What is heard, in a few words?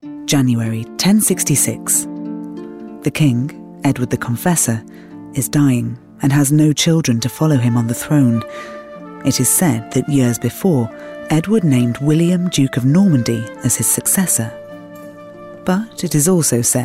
Narration